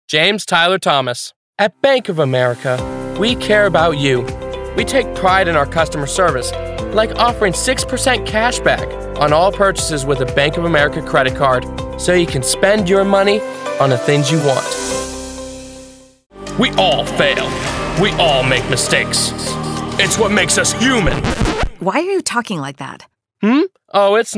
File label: Professional Male Voice Over Talent; DEMO; On The Mic Training